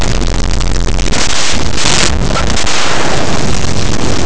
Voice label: azteca, fricatives; laboratori fonetica